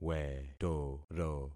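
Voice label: "wetoro", concatenated syllables; Affixing experiment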